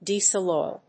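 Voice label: "Diesel oil", English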